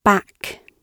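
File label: "back", British English; Oxford Audio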